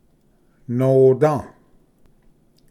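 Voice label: "noda", Cherokee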